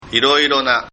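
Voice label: "iroirona", Japanese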